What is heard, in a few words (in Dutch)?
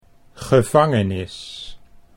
"gevangenis", Dutch